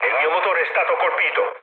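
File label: Italian damaged engine mood low, speech; War Thunder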